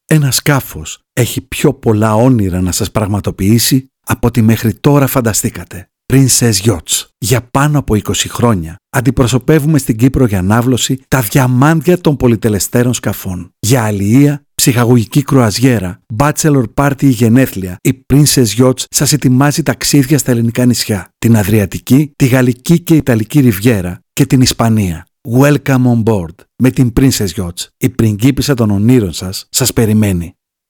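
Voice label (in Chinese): 希腊语男_外语_小语种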